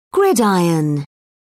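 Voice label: "gridiron", British English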